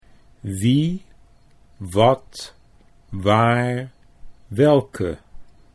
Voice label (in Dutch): wie wat, Dutch